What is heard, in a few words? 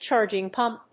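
"CHARGING PUMP", English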